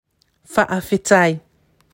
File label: Thank you Faafetai, Samoa; 2022 Pacific Language Weeks